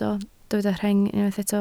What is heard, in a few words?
"rheng", Welsh